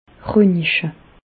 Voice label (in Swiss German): "roniche", Bas Rhin; medecine; radiographier